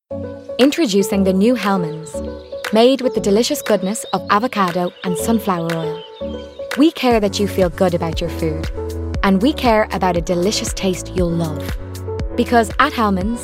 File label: Commercials; regional accents